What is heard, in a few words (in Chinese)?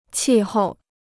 "气候", Chinese